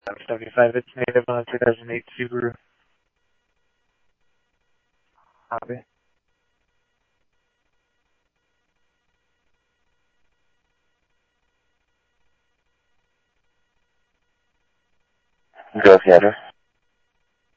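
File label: Mesa Police Department, scanner audio; MESA PD Superstn MesaPoliceDep; Mesa Simulcast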